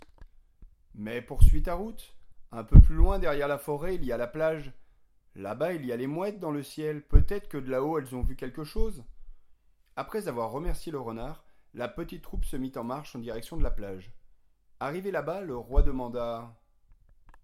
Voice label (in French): narration